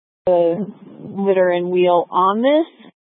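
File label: Incident Tac 3, Scanner audio recording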